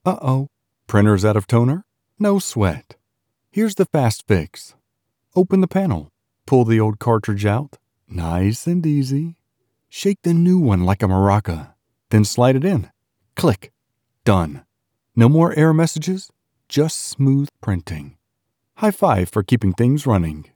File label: Business Ease of Maintenance Video Upbeat, Explainer & Whiteboard Video Voice Overs